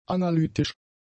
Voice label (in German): "analytisch", German; adjectives